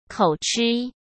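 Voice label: "口吃", Mandarin Chinese; hsk; chinese-flashcards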